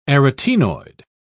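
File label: "arytaenoid", British English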